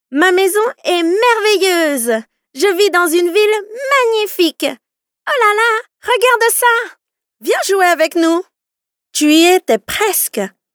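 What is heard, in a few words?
French female children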